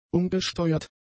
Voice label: "umgesteuert", German; adjectives

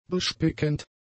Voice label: "bespickend", german; adjectives

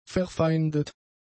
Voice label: "verfeindet", German; adjectives

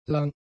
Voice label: "lang", German; adjectives

